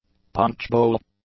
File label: "punchbowl", English